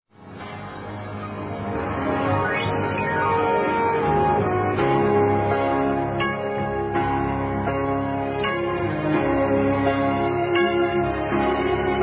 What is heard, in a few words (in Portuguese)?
PASCOA, masc